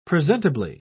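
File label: "presentably", British English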